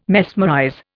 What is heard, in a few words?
"mesmerize", Indian